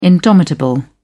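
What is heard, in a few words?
"indomitable", British English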